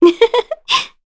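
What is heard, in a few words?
Happy, Korean; Veronica; kings raid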